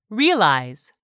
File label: "realize", English; 3000 words